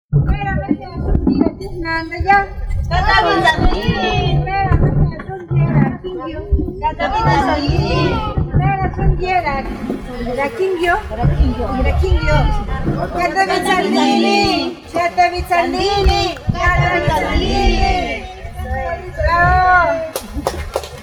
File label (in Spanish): consignas mazateco castellano; eloxochitlan